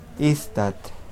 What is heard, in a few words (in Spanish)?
Nawat palabras sal; pipil